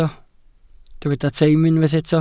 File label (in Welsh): "teim" Welsh